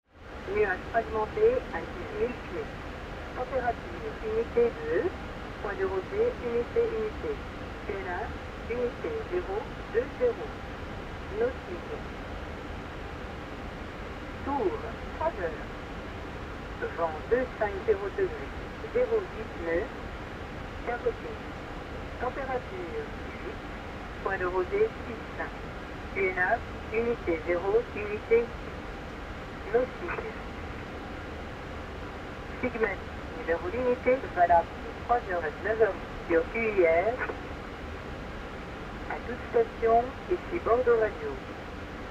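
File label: aircraft message weather; aircraft msg weather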